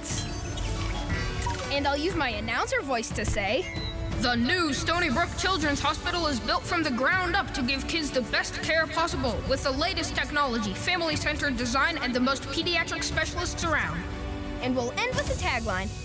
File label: Childrens Radio; KidCreative